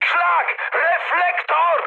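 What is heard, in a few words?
searchlite, mood high, Polish speech; War Thunder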